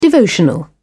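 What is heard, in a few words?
"devotional", British English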